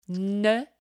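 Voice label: "ne", French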